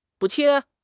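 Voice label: "补贴", Chinese; HSK6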